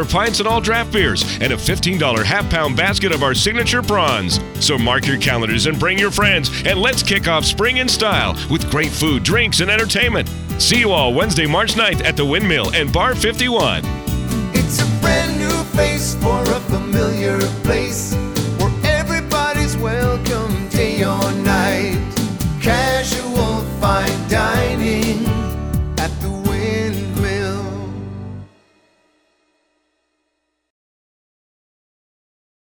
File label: Country ad